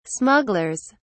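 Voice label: "smugglers", British English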